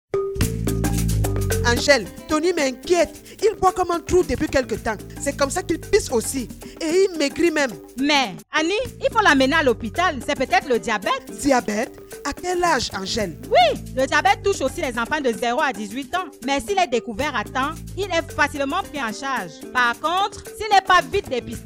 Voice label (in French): Spot radio sensibilisation francais; sensibilisation sur le diabete de lenfant